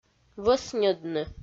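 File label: "вӧсньӧдны", Komi, female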